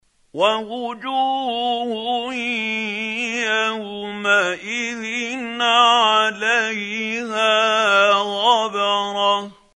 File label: quran, Hussary, tafseer; Abasa